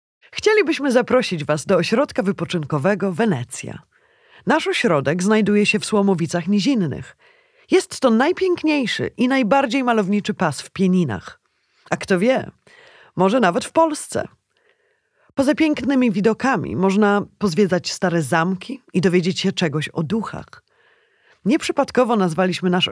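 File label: Polish General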